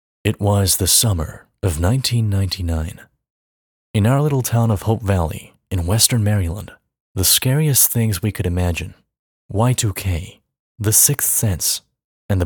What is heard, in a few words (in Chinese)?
外籍英男 干音 叙述